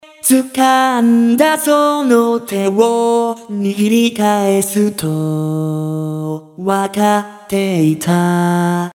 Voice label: Vocaloid